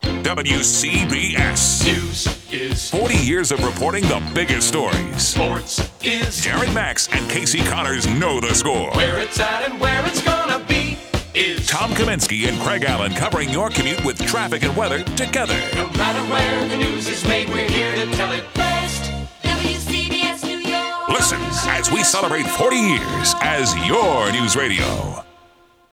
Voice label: news sports; wcbs